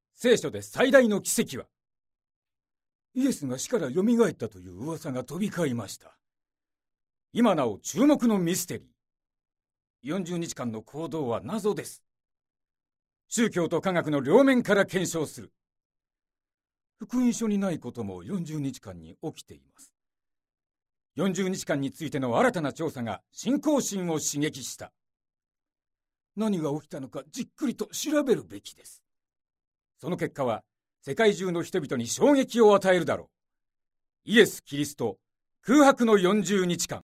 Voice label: narration